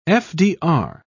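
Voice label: "fdr", English, British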